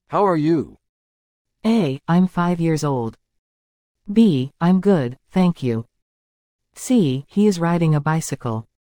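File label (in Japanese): ノーマル, リスニング; You will hear a question or statement and three responses spoken in English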